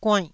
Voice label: "guainnh1", taiwanese